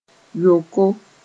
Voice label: "yoko", moore

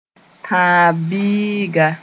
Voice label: "tãb-biiga", moore

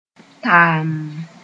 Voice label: "tamm", moore

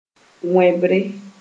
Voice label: "wẽbre", moore

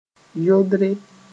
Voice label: "yodre", moore

